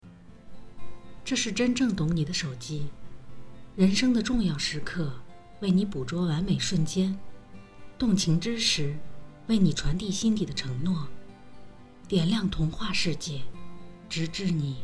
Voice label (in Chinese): 手机广告